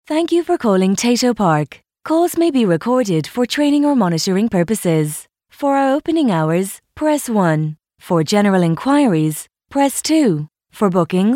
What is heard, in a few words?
irish voiceover telephone